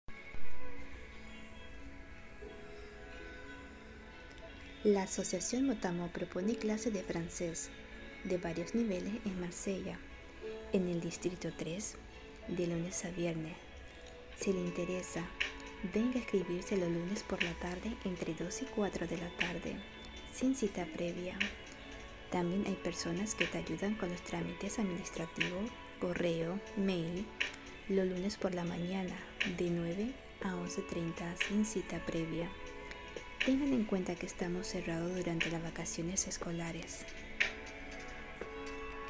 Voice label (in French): espagnol; MOTAMOT